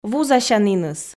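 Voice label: "вузасяніныс", Komi, female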